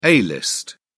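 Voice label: "a-list", British English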